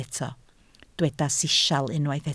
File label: "sisial", Welsh